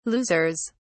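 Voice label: "losers", British English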